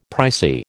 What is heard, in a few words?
"pricey", American